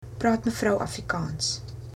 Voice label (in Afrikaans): do you speak, afrikaans; Praat Mevrou Afrikaans?; (frm/>f)